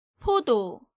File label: "Podo", Korean